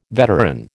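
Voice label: "veteran", American English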